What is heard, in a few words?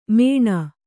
"ಮಾಣ್", kannada